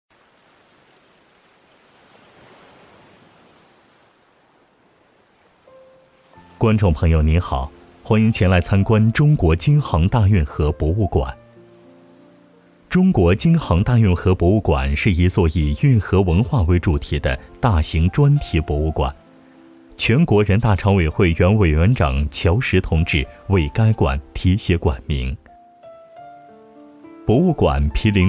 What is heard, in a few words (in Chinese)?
展览解说; 京杭大运河